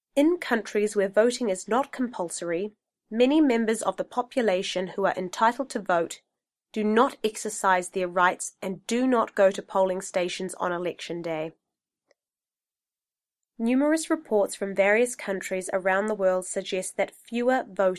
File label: PTE Speaking aloud Practice exercise